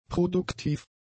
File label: "produktiv", German; adjectives